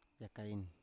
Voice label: "yakain", Biak

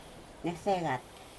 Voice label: basket clothes, aneityum

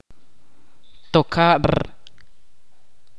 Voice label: "nube" in Ch'orti'

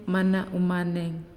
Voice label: "Yellow", Naasioi

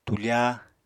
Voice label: "tufa", apatani

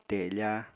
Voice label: "tefa", apatani

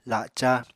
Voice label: "laca", Apatani

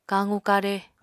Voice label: "kaqu-kare", Apatani

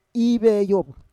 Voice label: "iveyov", Roon

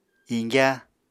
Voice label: "iñgyá", apatani

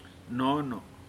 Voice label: "Mother", Pingelapese

